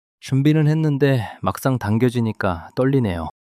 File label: shy